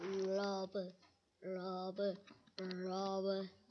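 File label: "rub", spoken word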